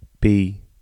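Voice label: English alphabet b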